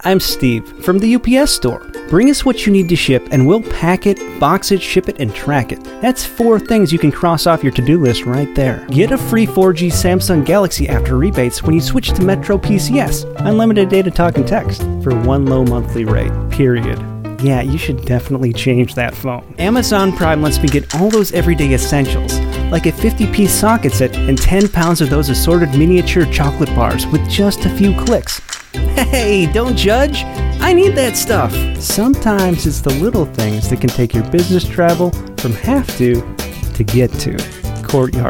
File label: Commercial Voiceover